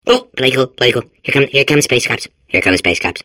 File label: babble; portal2